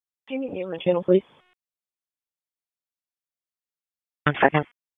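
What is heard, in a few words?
Police Northeast District Dispatch, p25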